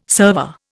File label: "server", Indian